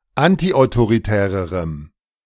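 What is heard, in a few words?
"antiautoritärerem", German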